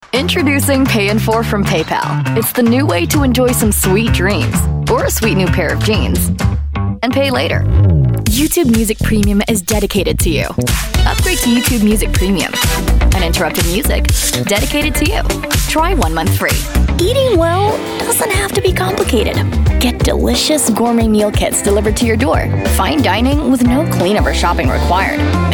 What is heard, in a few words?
Commercial (English)